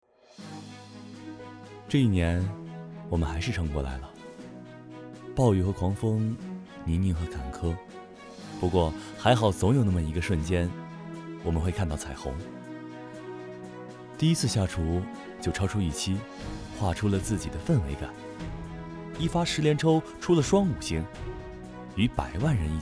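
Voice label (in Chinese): 独白励志独白样音温暖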